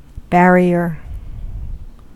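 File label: "barrier", American English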